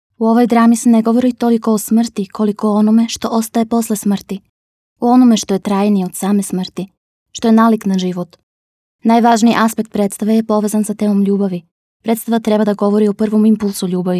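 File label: serbian female narration; voice demos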